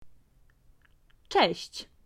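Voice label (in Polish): "cześć", Polish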